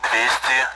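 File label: Speech Sound Russian Common AWACS Numbers; DCS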